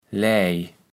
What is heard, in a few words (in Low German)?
"laij", kaevels platt